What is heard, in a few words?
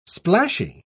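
"splashy", English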